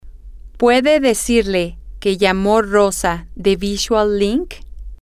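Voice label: "can you tell him her that X called from Y", English, female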